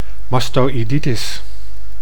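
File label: "mastoiditis", Dutch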